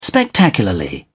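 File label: "spectacularly", English, British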